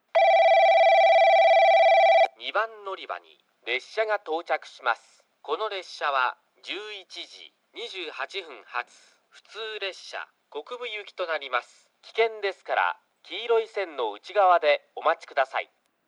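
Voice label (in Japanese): 2番のりば接近放送（折り返し・国分行き）, 男声放送; 伊集院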